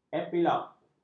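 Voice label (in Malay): "epilog", Malay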